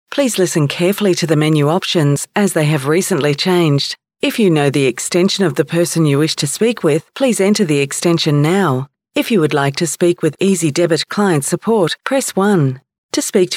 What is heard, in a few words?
IVR